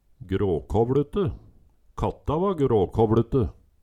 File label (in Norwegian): gråkåvLutte, Numedalsmål